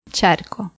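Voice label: "charco", Spanish, cuba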